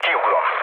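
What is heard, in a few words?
Hungarian bailout mood low, speech; War Thunder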